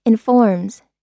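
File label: "informs", US English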